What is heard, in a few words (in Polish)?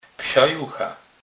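"psajucha", Kurpie dialect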